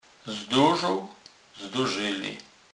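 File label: "zdorzec", Polish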